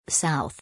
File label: "South", English